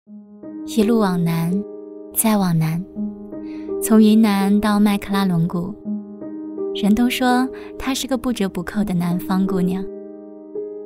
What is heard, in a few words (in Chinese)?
女 旁白; 在澳洲的生活